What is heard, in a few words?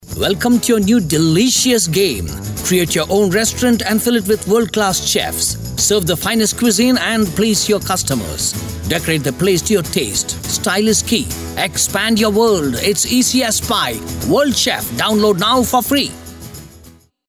male indian voice over talent upbeat